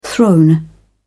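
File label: "throne", British English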